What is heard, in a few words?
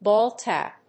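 "Ball tap", English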